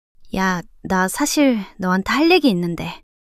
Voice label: shy; monologue